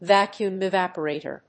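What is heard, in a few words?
"VACUUM EVAPORATOR", English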